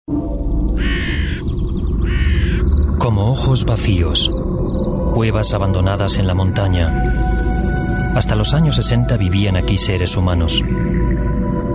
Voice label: es